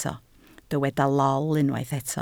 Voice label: "lol", Welsh

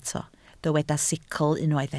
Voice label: "sicl", Welsh

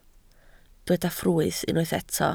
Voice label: "ffrwyth", Welsh

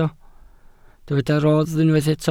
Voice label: "rodd", Welsh

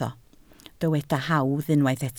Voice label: "hawdd", Welsh

